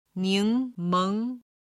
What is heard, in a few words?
"ningmeng", chinese